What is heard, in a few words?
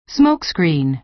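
"smokescreen", American English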